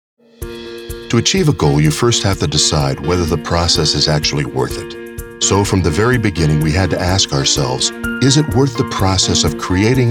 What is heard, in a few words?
Corporate Narration